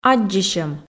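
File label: "аддзысьӧм", Komi, female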